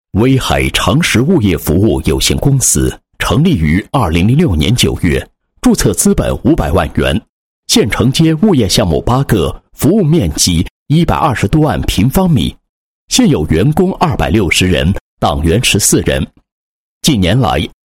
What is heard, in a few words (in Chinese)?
男 党建专题; 某物业服务有限公司党支部党建